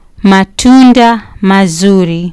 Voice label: "matunda mazuri", Kiswahili

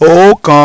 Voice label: "Ookan", Yoruba

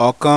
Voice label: "Okan", Yoruba

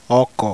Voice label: "oko", Yoruba; ORAL VOWELS; oko-ojo-owo

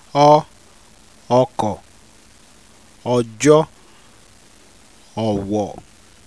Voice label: oh oh oh, Yoruba; ORAL VOWELS; oko ojo owo

